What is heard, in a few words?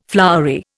"flowery", Indian